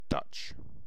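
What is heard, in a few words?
"dutch", British English; pronunciation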